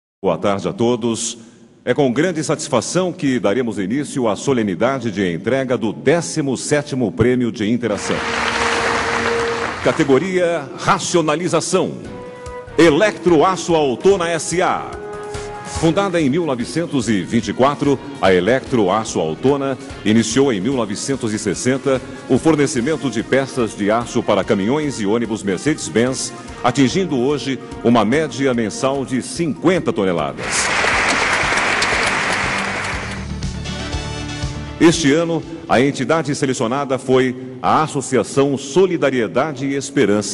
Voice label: mestre de cerimonia

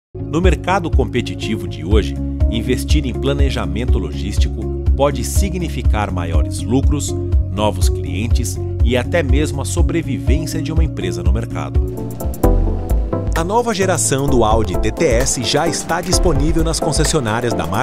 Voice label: institucional

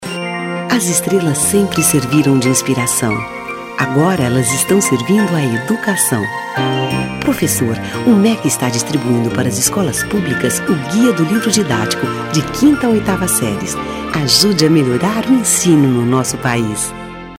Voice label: Locucao; MEC

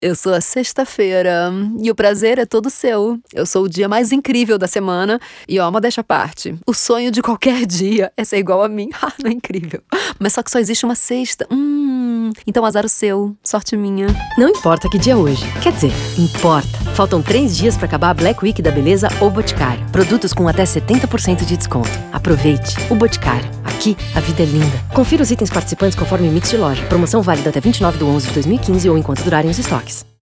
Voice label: spots; boticario black week

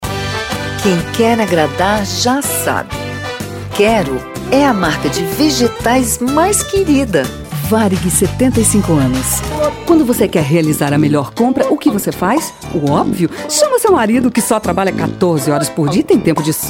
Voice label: Repertorio Coloquial

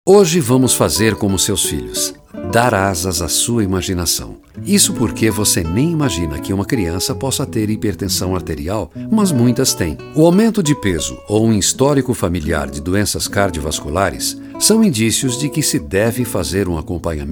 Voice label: COLOQUIAL

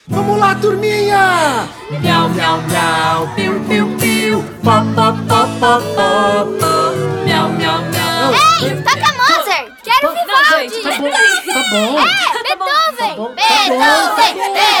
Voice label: Spot Concertos e Operas; Folha

